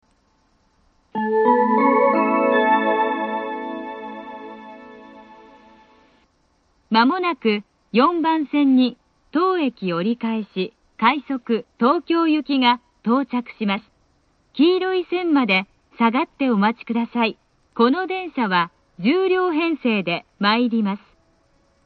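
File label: platform 3 approaching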